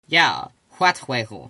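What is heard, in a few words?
yes a little Old English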